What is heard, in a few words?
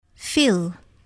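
"fill", English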